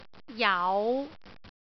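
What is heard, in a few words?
"jau5", Cantonese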